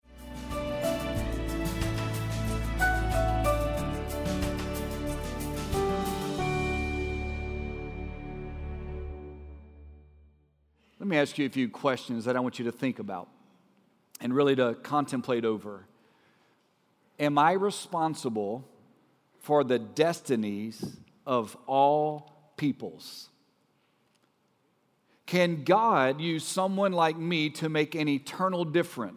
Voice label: Sermon English